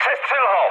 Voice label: attack position, speech cze, mood low; War Thunder

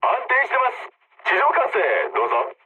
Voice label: jp chat; War Thunder

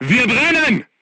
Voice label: voice message fire in tank, crew tanks german

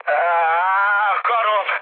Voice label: damaged pilot, speech hun; War Thunder

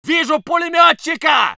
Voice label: voice sov infantry

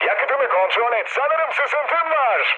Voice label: tank damaged, mood low, speech tur; War Thunder

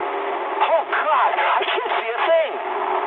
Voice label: eng searchlite mood med, speech eng; War Thunder

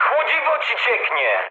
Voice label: damaged water mood high, speech pol; War Thunder

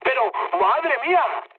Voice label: trick, mood high, speech; War Thunder

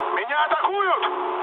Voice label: aircraft damaged, mood med, speech ru

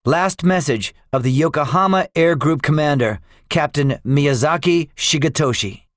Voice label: debriefing, english; us guadalcanal; War Thunder

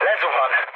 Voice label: aircraft destroyed, speech hun; War Thunder

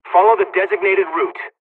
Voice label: storm flight, dialogs russian; War Thunder

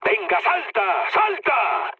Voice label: bailout, mood med, speech; War Thunder

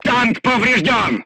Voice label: voice message player tank hit, crew tanks russian

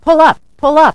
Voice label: "Pullup", ENG; A-10C; A-10 VMU; Messages; DCS

